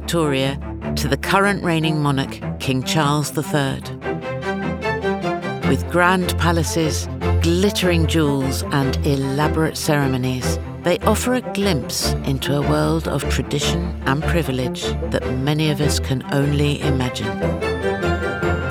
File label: Narration Royal Family Documentary